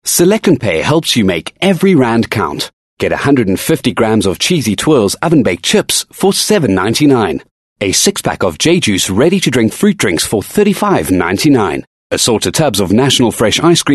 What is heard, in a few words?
English Hard Sell